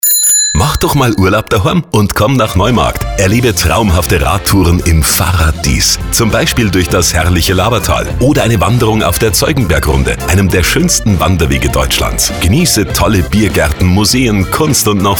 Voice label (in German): Werbespot; stadt neumarkt; fahrradies